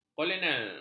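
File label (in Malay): "kolonel", Malay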